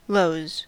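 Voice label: "lows", US English; pronunciations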